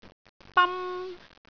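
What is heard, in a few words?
"bam1", Cantonese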